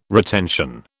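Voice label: "retention", British English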